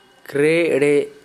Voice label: "kɽe(ʔ)-ɽeʔ", Gta, Dialect: Hill; belch (vb.)

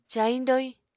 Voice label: "cayiñ-doyiñ", Apatani

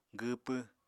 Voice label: "gwwpw", Apatani

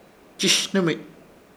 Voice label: "Chshhnɨmich", Ishir Chamacoco; ser viejo

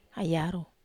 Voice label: "ai yarou", wamesa

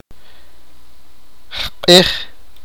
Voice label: sipakapense; breathe

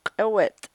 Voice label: "k'ewet'" back, chukchansi

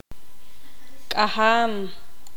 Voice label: poqomam; lazo

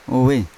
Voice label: "ove" lungs, Yawa

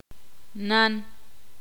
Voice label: ixil

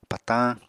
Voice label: "patañ", Apatani

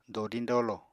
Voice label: "dodiñ dolo", Apatani

